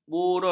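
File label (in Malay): "burun", Malay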